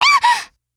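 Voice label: MOOD, Japanese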